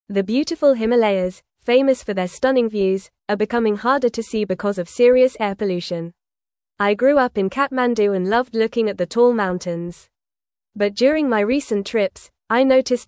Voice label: English Upper Intermediate FAST Reading; English Newsroom; Himalayan Views Obscured by Rising Air Pollution